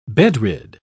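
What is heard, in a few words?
"bedrid", English, British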